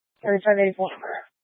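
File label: Police 1 Dispatch, Scanner audio recording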